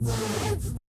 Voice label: "Feel The Vibe" robot; Yamaha DJX-2B